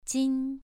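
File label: "jin", Mandarin Chinese, second tone, pronunciation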